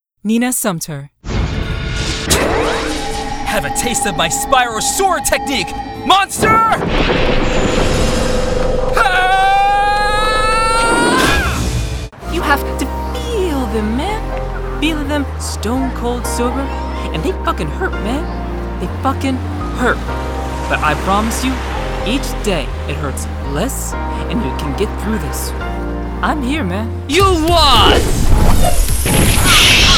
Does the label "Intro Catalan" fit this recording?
No